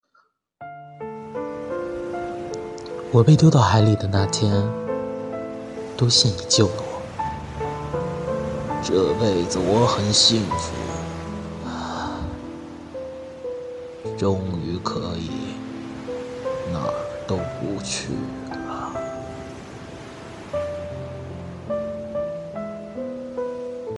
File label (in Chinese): 老人老年音